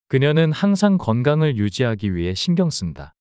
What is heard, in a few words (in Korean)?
그녀는 항상 건강을 유지하기 위해 신경 쓴다 문장 남자